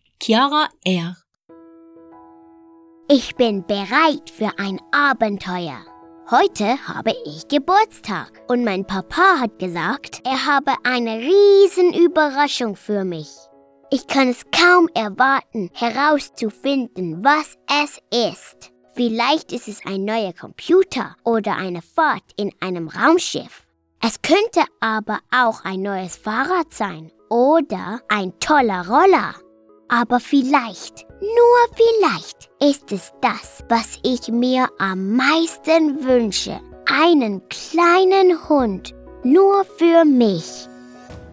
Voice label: GERMAN